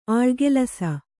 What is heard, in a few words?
"ಆಳುಗೆಲಸ", Kannada